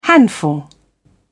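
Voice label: "handful", British English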